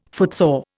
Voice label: "footsore", Indian